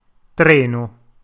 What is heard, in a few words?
"treno", Italian